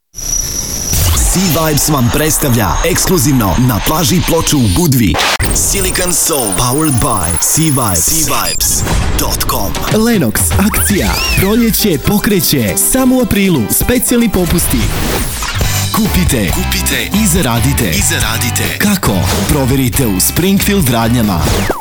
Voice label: voiceover